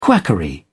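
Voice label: "quackery", British English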